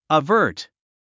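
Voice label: "avert", English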